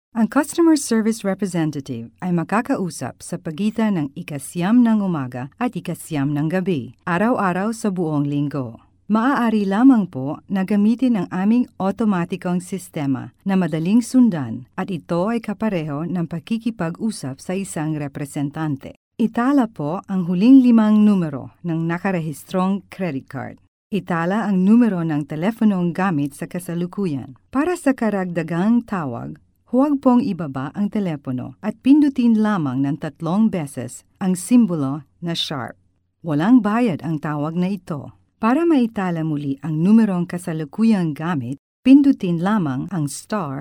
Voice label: Tagalog